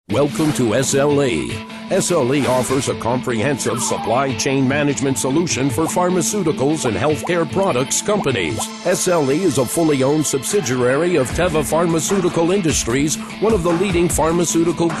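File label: American English, male, voice over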